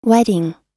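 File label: "wedding", English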